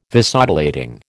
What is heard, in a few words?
"Vasodilating", American